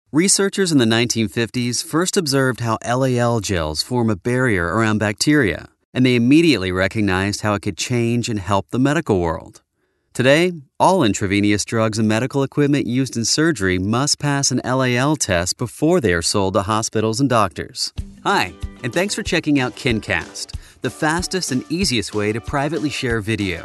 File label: english american training e-learning